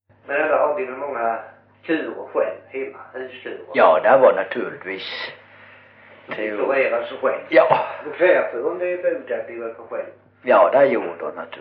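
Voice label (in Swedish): Osby Skåne, dialekter; Folkminnen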